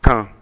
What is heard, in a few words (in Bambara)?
"kan", Bambara; corps; Mots Bambara